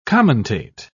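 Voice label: "commentate", English, British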